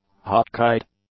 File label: "hotkeyed", English